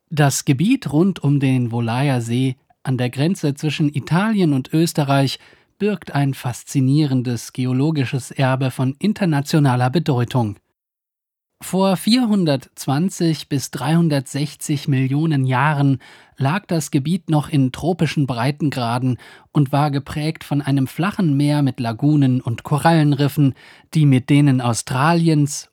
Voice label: german podcasts

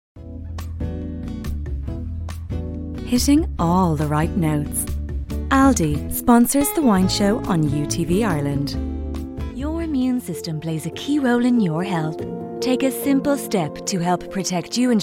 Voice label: english irish; professional Irish voiceover artist

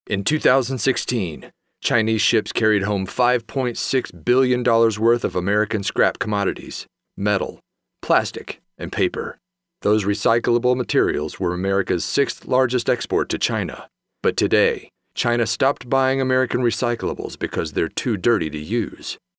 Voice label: english american documentaries

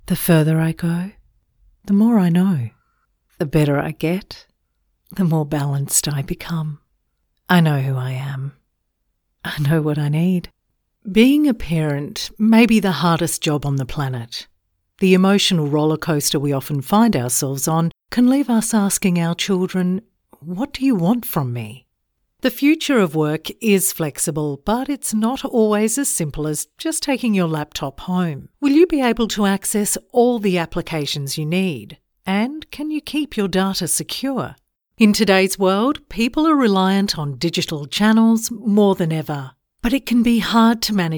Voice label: english australian narration

